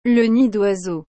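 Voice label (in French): "le nid d'oiseau", French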